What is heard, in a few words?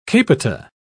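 "capita", British English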